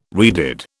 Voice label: "redid", British